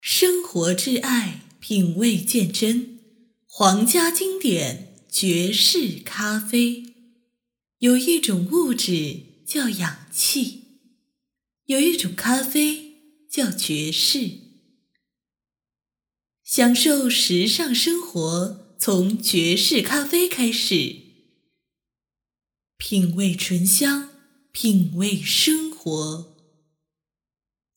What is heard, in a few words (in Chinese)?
咖啡广告人物干声无背景乐